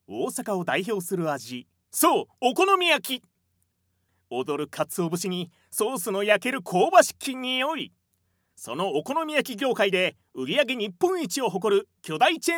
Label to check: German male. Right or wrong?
Wrong